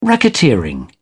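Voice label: "racketeering", British English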